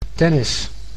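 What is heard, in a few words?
"tennis", Dutch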